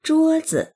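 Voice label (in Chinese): "zhuo zi" Chinese; 桌子; 机、テーブル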